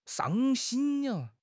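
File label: "shang1 xin1", Mandarin Chinese; HSK; HSK4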